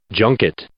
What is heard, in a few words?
"junket", English